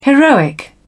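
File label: "heroic", British English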